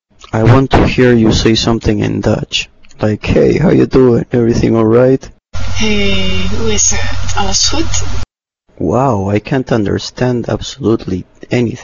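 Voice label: Dutch, voice clips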